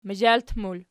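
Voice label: "mazhalt", plural, Archi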